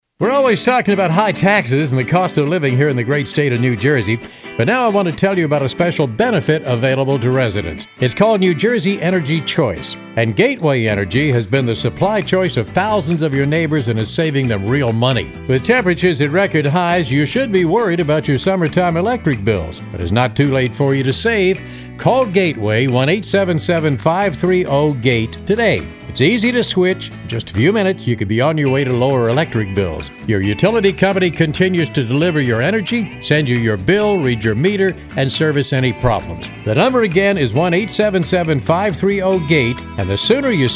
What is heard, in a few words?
radio ad